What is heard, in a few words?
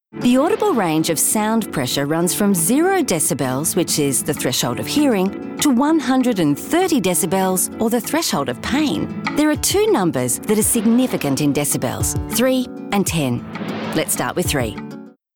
female voice artist; NSW Transport